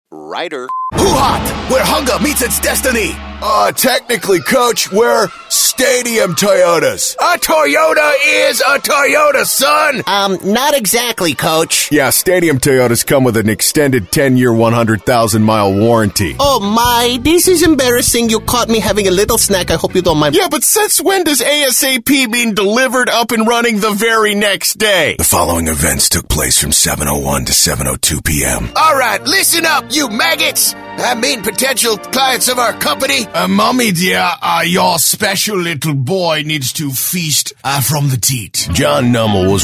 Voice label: Character